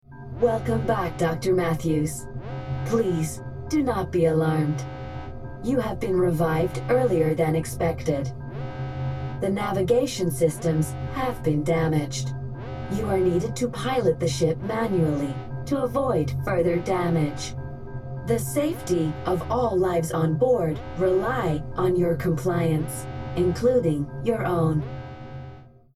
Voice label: American